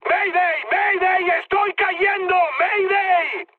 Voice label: aircraft destroyed mood high; War Thunder